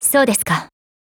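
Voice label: Japanese; ACCEPT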